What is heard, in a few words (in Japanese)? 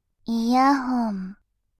イヤホン, バイノーラル左, ASMR